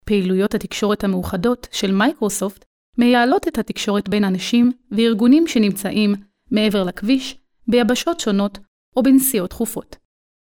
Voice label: female hebrew voice over narrative

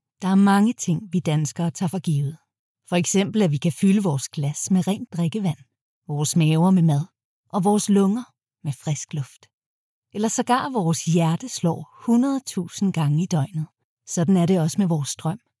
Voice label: female danish voice over narrative

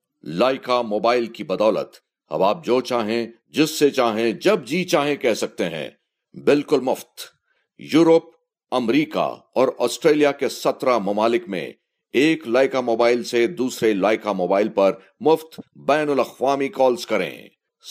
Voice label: male urdu voice over talent sales

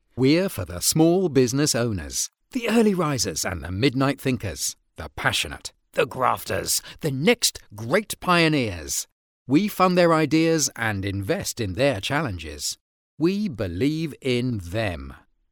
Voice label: male british voice over upbeat, english british

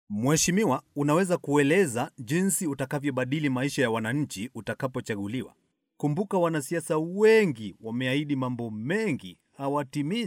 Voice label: male swahili voice over talent character

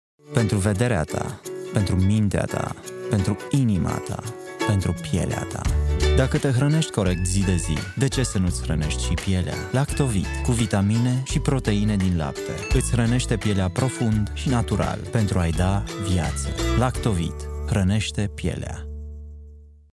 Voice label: male romanian voice over talent sales

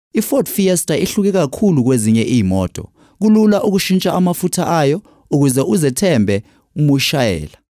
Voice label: male zulu voice over talent sales

